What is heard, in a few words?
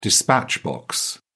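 "dispatch box", English, British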